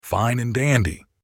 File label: male Fine and dandy